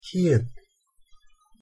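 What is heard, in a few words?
"ᄒ", Korean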